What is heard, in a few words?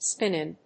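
"spin-on", English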